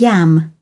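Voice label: "x ray", British English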